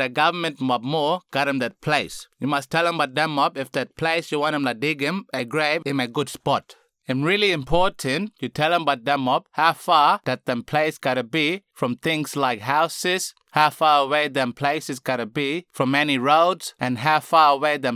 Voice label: Burial Site Burial Notification Kriol, information recorded in aboriginal languages; WS Kriol; burial and cremation; Burial and Cremation Act 2022